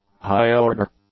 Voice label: "high-order", English; adjectives